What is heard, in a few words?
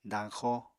"dañxo", Apatani